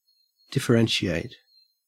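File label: "differentiate", Australian English; pronunciations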